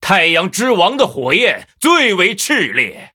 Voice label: Male Blood Elf FIRE FESTIVAL; zh